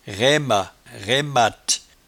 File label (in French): "raimat", patois jura